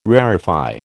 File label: "rarefy", American